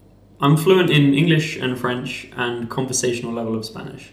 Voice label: interviewee male filler